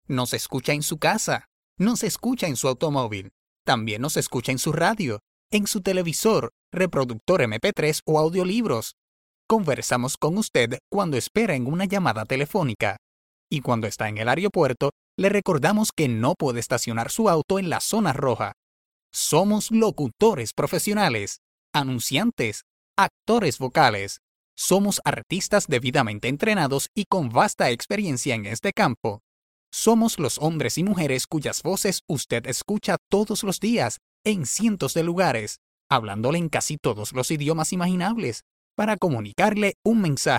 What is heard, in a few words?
voice unprocessed